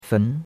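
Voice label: "fen", Chinese, second tone; Cours-de-Chinois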